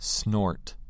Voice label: snort